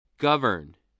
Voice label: "govern", spelling

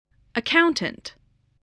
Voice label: "accountant", English voice

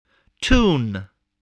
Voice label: "tune", voice

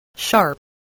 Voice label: "sharp", voice spelling

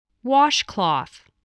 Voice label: "washcloth", spelling

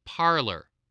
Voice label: "parlor", English, voice

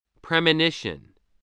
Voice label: "premonition", voice, spelling

